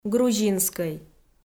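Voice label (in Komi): "грузинскӧй", Komi, female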